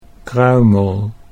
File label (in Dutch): "kruimel", Dutch